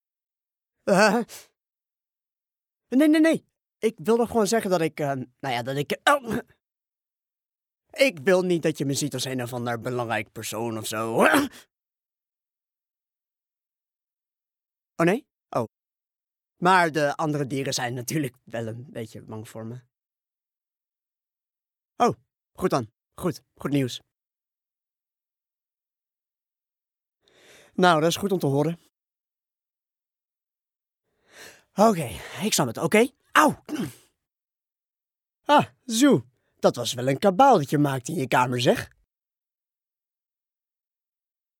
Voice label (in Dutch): stem demo met introductie